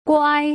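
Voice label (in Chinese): "guai", Mandarin syllable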